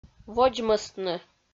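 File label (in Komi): "водзмӧстны", Komi, female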